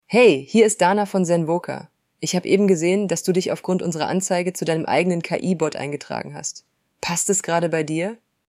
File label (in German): deutsche Stimme weiblich